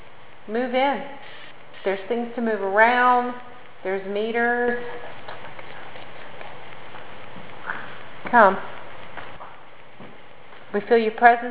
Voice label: whisper